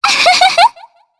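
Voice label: Happy, Japanese; heroes; Shea; kingsraid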